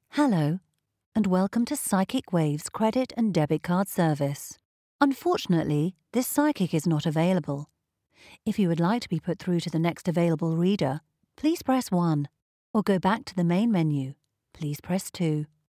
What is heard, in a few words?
IVR